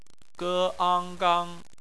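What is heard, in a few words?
"gang" bopomofo, Chinese; phonetics; bpmf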